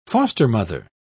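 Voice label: "foster-mother", English, British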